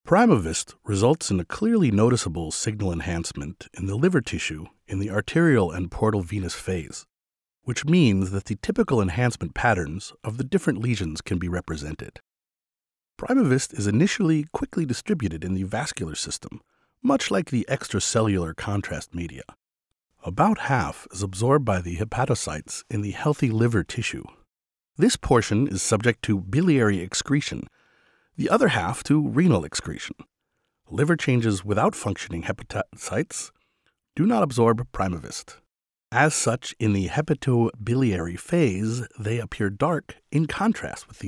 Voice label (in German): US Lehrfilm, englisch; sprecher